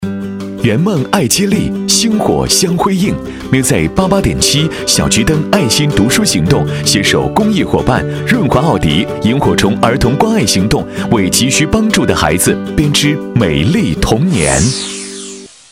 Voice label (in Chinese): 男 电台宣传; 小桔灯读书行动